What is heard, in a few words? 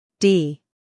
"d" US English; sounds-of-american-english; everyone-can-use-english